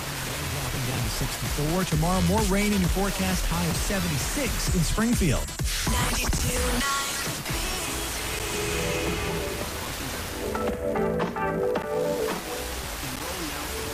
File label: call ES; KOSP